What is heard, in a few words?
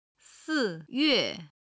"si4yue4", Chinese